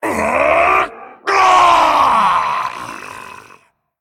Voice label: Male Dragon Death; 死亡 Death; en; 风暴巨龙迦拉克隆（英雄）

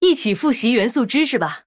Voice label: Female Human Picked, zh

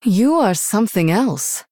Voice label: Female Human Well Played; HERO

